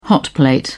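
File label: "hotplate", British English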